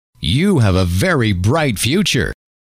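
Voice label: you have a very bright future, male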